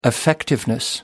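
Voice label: "effectiveness", British English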